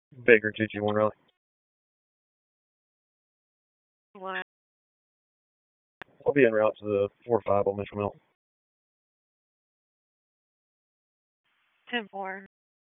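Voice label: Raleigh Police Northeast District Dispatch, p25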